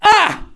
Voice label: pain, hostage; Counter-Strike Global Offensive

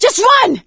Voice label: yell run; Left 4 Dead 2; producer

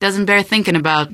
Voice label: answer, female; Garry's Mod; hl2

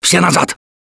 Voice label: back up quiet, russian; Gambler

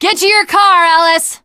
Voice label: teengirl, voice; bridge run; Left 4 Dead 2; l4d1 finale

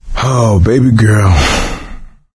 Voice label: survivor mourn, voice; Left 4 Dead 2; coach

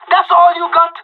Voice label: on a roll brag, pirate; Counter-Strike Global Offensive

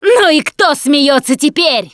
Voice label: taunt, russian, Survivor Voice Producer

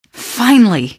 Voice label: "finally", female; Garry's Mod; hl2

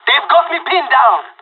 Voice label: pinned down, pirate; Counter-Strike Global Offensive

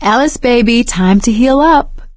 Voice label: suggest health, survivor voice; Left 4 Dead 2; producer; ellis

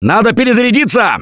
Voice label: reload intense, russian; Gambler

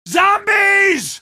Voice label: "zombies", male; npc; Garry's Mod; hl2

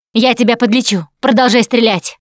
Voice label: Heal Other Combat, russian; Producer

